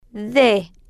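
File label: "de" Spanish, female, slow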